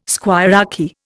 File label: "squirearchy", Indian